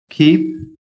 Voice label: "KEEP", PHONETIC

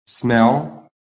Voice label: "smell", American

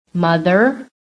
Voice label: "MOTHER", american

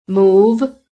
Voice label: "BAD", american

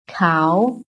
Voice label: "COW", american

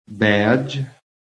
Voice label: "BADGE", american

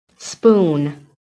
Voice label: "SPOON", PHONETIC

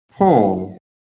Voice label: "PULL", PHONETIC

